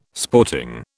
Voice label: "sporting", British